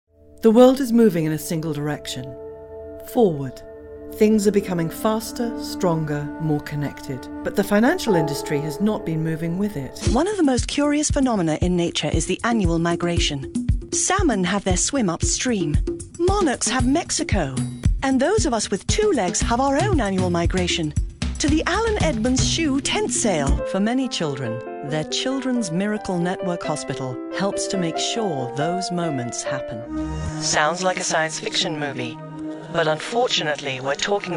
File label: Commercial